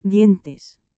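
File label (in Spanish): "DIENTES", Spanish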